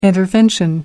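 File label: "intervention", British English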